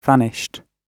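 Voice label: "vanished", British English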